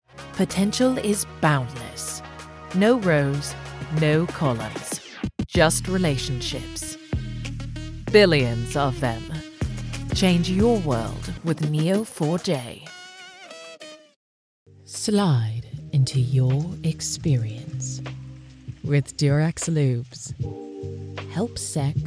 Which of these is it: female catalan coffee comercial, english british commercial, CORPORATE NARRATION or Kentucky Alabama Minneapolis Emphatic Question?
english british commercial